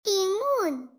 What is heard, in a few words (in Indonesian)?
Suara Ceria Anak "Timunn!"